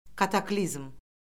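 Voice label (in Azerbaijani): "kataklizm", Azerbaijani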